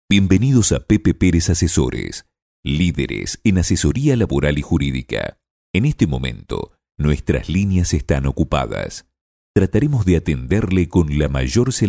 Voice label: locutor argentino argentinian voiceover; Argentinian Male voiceovers

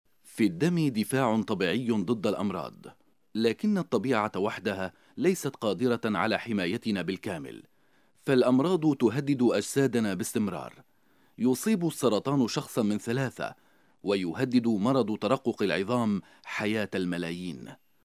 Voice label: locutor arabe arabic voiceover; Arabic male voice over

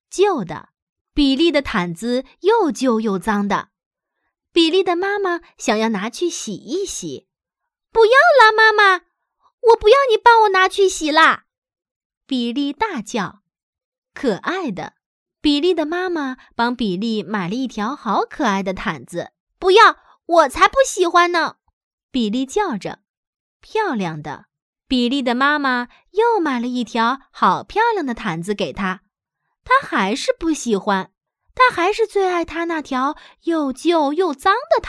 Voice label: mandarin female locutora china chinese

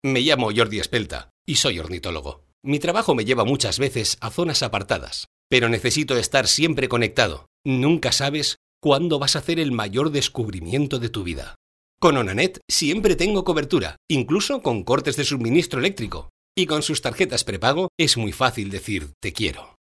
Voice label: locutor castellano spanish voiceover

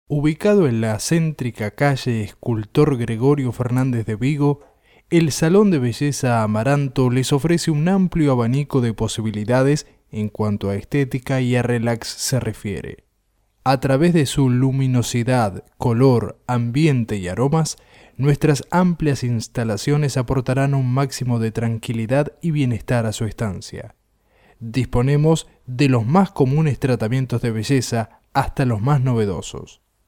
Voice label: locutor argentino argentinian voiceover

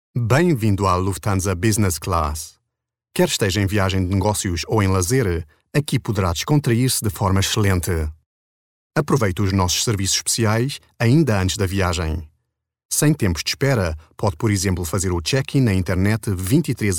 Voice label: locutor portugues portuguese voiceover

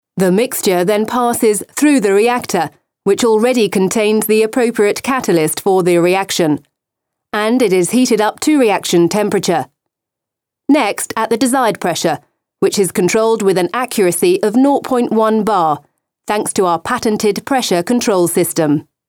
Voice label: locutora inglesa english female voiceover; speaker inglese

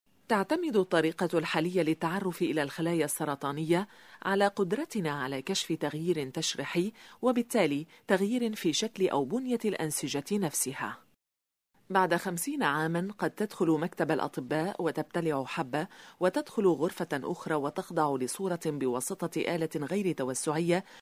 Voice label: locutora arabe arabic voiceover; Arabic female voiceover

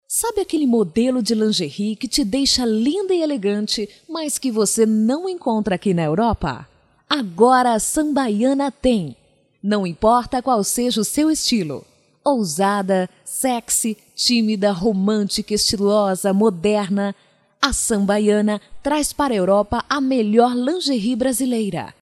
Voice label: locutora brasil brazilian voiceover; locutora de Brasil.

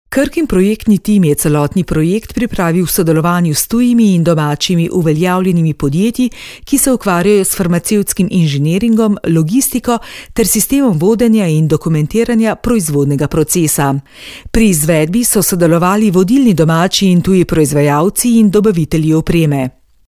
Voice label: slovenian voiceover locutora eslovena; Slovenian female voice over

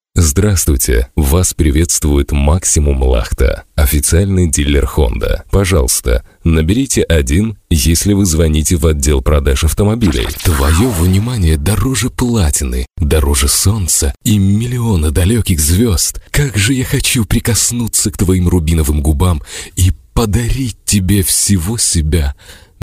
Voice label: russian voice over, Ukrainian voice over

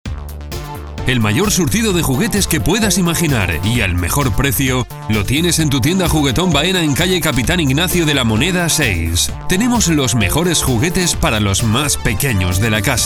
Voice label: locutor spanish voiceover

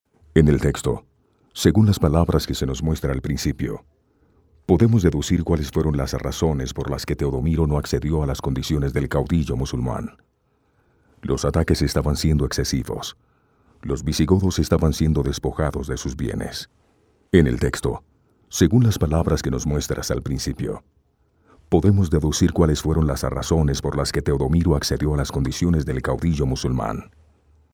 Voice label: locutor colombia colombian voiceover; locutor colombiano

